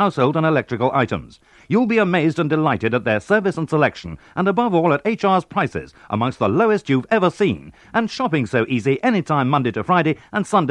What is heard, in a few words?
Radio Advert, Wholesale; Radio Hallam